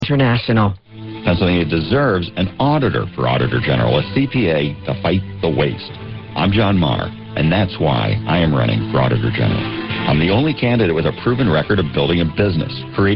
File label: radio ad; Maher